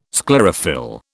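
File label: "sclerophyll", British English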